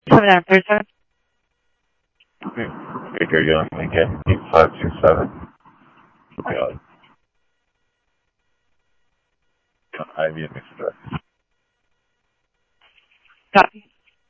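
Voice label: Mesa police department, scanner audio trunk; Mesa Simulcast; MESA PD 2 Centrl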